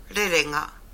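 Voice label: "rerenga", Maori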